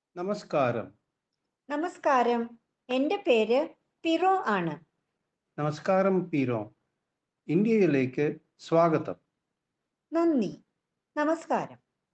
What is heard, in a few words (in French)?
dialogue Malayalam Inde